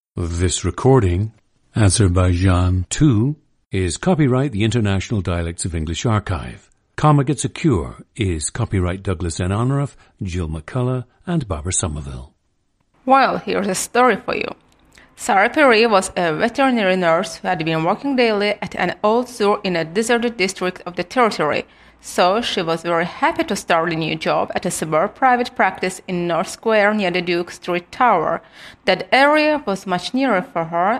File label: azerbaijan; Azerbaijan 2; International Dialects of English Archive; a 35-year-old woman from Baku, Azerbaijan.